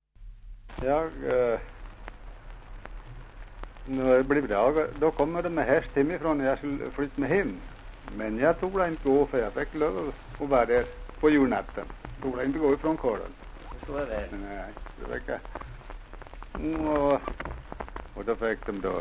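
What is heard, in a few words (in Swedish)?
Järbo Gästrikland, dialekter, svenska sagor; Folkminnen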